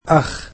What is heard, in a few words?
"brother", egyptian